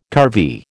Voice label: "curvy", American

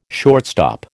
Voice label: "shortstop", American

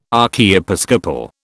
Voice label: "archiepiscopal", British

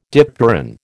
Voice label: "dipteran", American

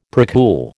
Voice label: "precool", American

